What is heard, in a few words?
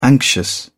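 "anxious", British English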